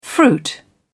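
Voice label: "fruit", British English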